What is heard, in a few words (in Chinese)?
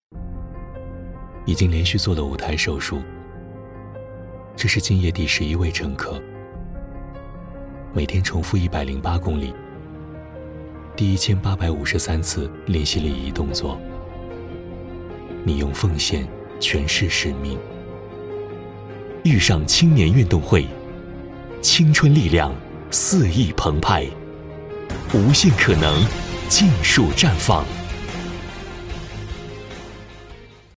男 温情; 二青会志愿者形象